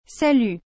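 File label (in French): "Salut", French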